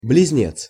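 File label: "bliznec", Russian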